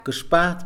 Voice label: "trepar", Mixe, hombre; Movimiento

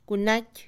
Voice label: barato, Mixe, Mujer; Posesión; INALI

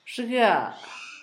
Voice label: plaza, Zapoteco, Asunción Ocotlan; INALI; Haspelmath

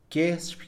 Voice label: "enviar", Mixe, hombre; Movimiento

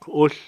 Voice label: liebre, Cucapá

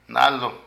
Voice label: Grueso, Zapoteco de Petapa; Vocabulary; Relaciones

